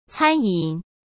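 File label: "餐饮", Mandarin Chinese; chinese-flashcards; hsk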